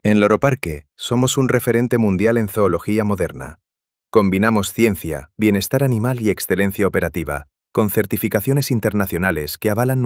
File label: confident and balanced; Seguro y equilibrado